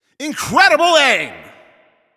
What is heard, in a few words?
Announcer Especially Good Shot